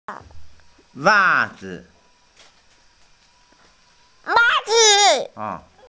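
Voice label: "wa zi", Mandarin Chinese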